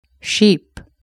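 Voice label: "sheep" English word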